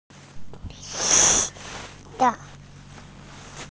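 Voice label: "shang4", Mandarin Chinese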